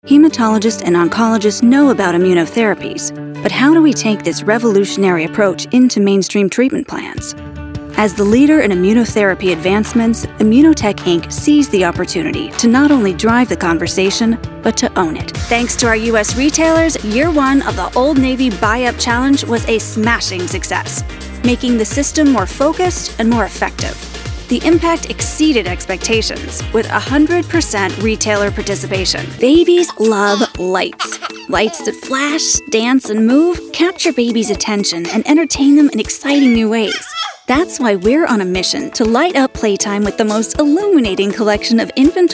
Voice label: Corporate

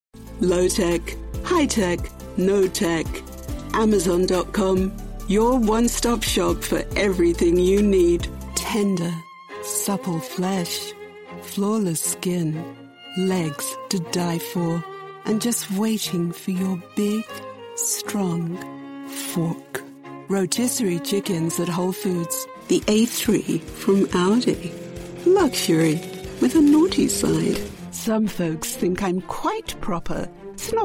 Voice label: British Commercial

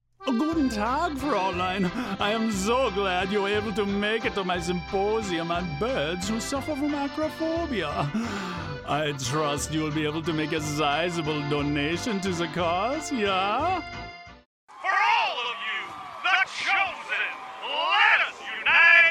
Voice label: Character

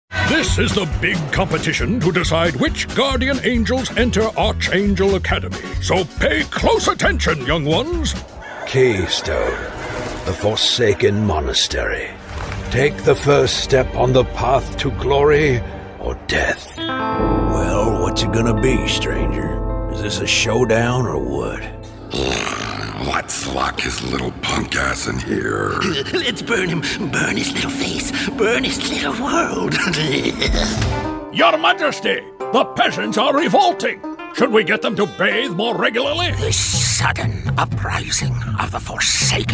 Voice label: Character

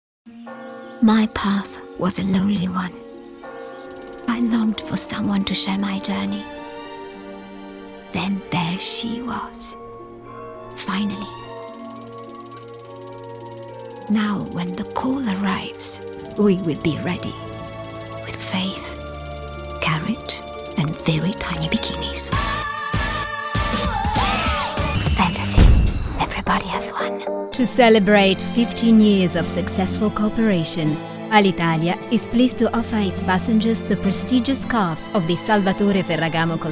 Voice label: COMMERCIAL ACCENTED

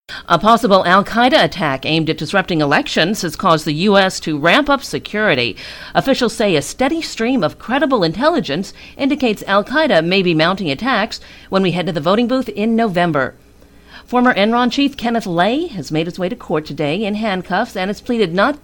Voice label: TV Announcer

